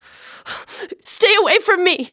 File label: Panic; zps